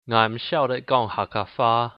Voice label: i cant speak hakka, hakka